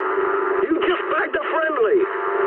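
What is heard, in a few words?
English player killed ally mood med; War Thunder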